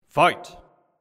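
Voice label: announcer "fight"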